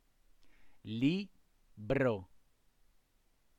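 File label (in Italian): "libro" Italian word, voce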